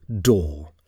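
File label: "door", English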